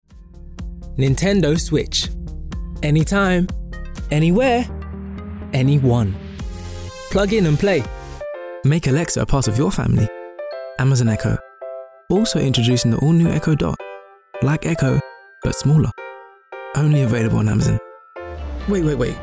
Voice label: commercial

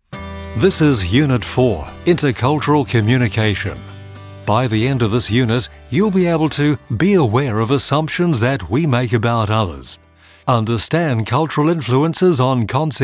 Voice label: Training and E-Learning

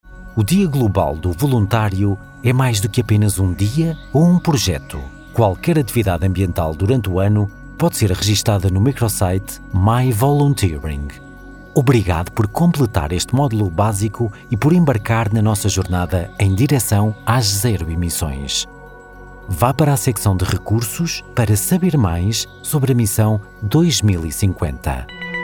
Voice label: eLearning

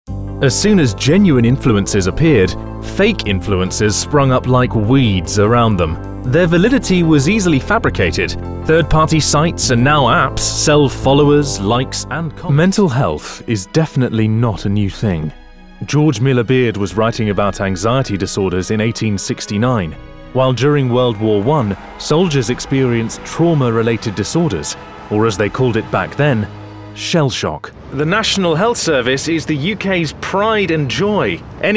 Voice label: Corporate

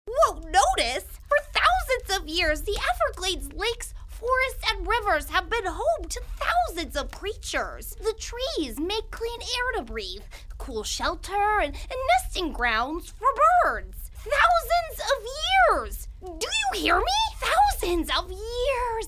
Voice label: Animation

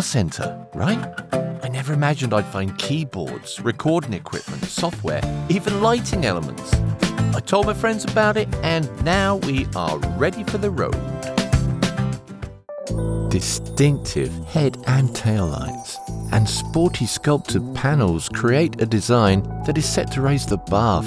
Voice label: Commercial

